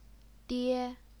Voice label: "die1", Chinese pinyin